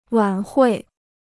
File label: "晚会", Chinese